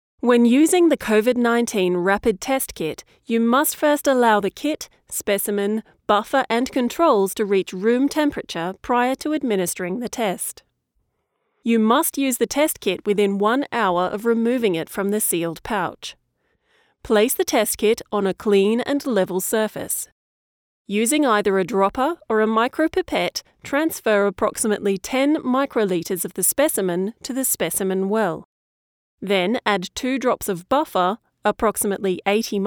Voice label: Coronavirus, medical narration, Aus